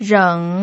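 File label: "reng", third tone, Mandarin Chinese pinyin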